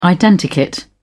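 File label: "identikit", British English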